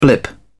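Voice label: "blip", British English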